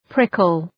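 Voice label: "prickle", English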